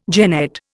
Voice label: "jennet", Indian

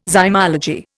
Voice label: "zymology", Indian

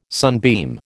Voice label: "sunbeam", American

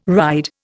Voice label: "ride", Indian

